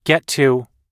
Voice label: get to, US English

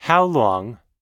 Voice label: "how long", US English

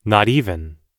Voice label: "not even", US English

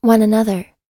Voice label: "one another", US English

